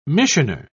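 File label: "missioner", British English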